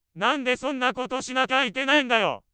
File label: synth anger